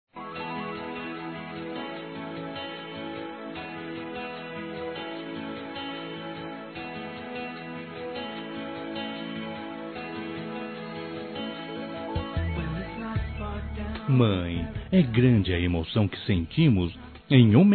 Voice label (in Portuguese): NOS DESEJAMOS, Telemensagens Dia das Mães, Masc; Telemensagens 2017